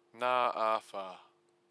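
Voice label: "nga awha", Māori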